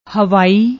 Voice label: "hwaai", Punjabi

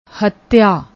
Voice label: "hutia", Punjabi; ਹੱਤਿਆ

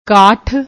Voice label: "kaath", Punjabi; ਕਾਠ

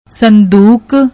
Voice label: "sandook", Punjabi

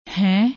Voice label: "hain", Punjabi; ਹੈਂ

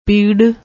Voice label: "peerr", Punjabi

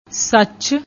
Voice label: "sach", Punjabi

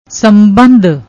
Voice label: "sanbandh", Punjabi; ਸੰਬੰਧ

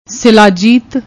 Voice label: "silajit", Punjabi; ਸਿਲਾਜੀਤ

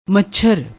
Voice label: "machaar", Punjabi

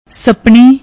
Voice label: "sappni", Punjabi; ਸਪਨੀ

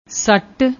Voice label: "sut", Punjabi; ਸੱਟ

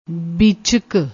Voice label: "beachak", Punjabi

